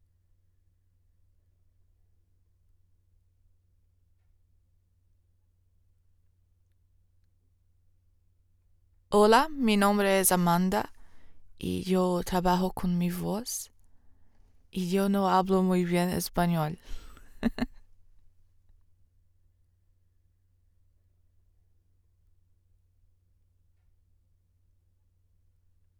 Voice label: home studio sound test raw dry unprocessed low sn ratio female brazilian; portuguese